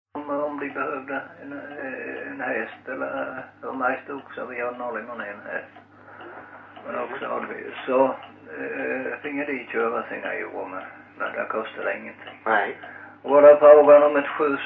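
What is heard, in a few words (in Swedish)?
Loshult Skåne, svenska sagor dialekter; Folkminnen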